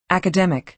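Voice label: "academic", American English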